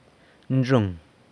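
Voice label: "nzhung", hard, San Lucas Quiaviní Zapotec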